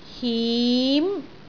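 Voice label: "him2", Cantonese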